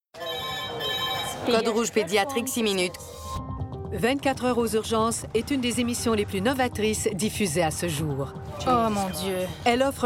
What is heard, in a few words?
narration